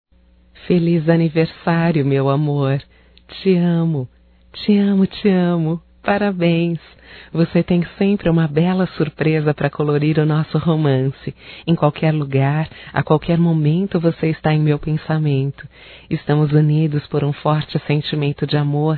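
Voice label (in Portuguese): romântico female, Telemensagens Aniversarios; Telemensagens 2017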